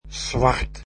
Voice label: black, dutch